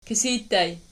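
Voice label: "kisij" ergative variant, Archi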